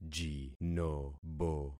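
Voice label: "jinobo", concatenated syllables; Affixing experiment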